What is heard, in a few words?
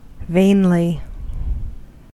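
"vainly", US English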